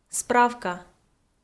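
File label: "справка", Komi, female; kpv; audio dict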